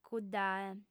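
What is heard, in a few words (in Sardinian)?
"cuddae", female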